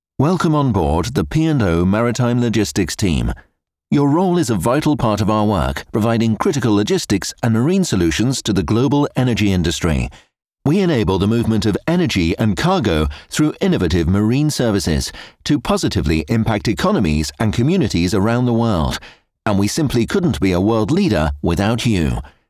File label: Welcome Onboard English